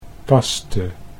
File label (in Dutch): "paste", Dutch